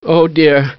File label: "oh dear", expletives, g-rated swears